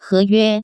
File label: "heyue", Taiwan Chinese; rent; kanfang